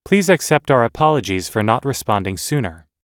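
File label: everyday apologizing, American English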